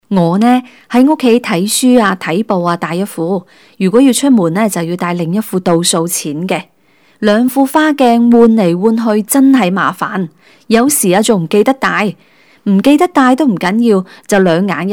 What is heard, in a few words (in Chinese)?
女粤16 粤语人物角色; 美丽岛（谢婵娥）; 素人